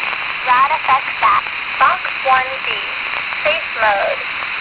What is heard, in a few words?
Voice Beacon